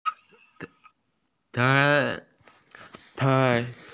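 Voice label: "typhoon", Mandarin; tai2feng1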